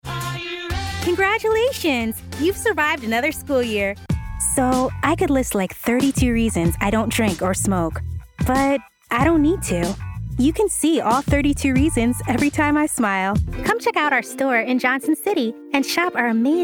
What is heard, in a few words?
VO American